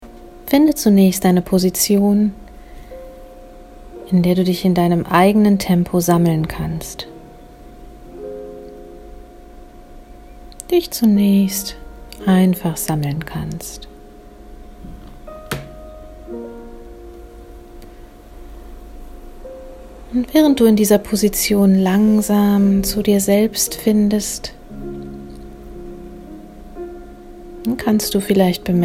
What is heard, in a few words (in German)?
atemmeditation; meditationen